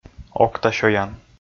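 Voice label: "окта сёян", Komi, male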